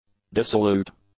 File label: "dissolute", English; adjectives